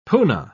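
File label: "puna", British English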